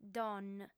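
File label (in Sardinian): "don", female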